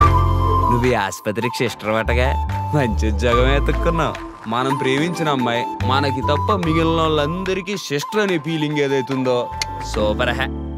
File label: Teaser Glimpse Dialogue Ringtone; Chaavu Kaburu Challaga; Kartikeya